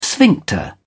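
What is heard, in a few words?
"sphincter", British English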